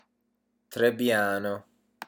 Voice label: "trebbiano", pronunciation